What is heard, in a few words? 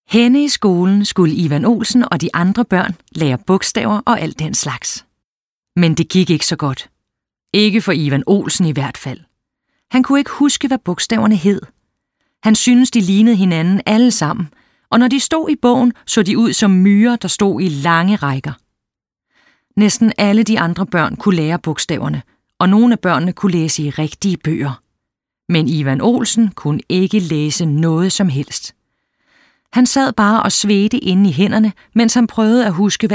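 Danish, Audiobook, female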